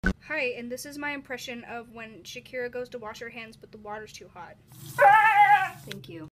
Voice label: Impression